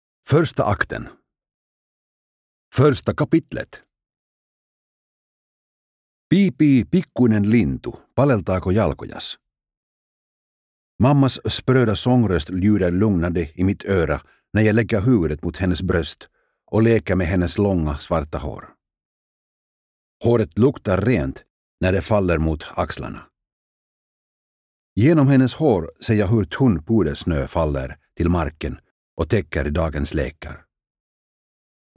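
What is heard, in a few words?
Finnish Audiobook Male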